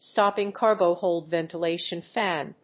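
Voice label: "stopping cargo hold ventilation fan", English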